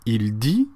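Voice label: "dire il", French; frans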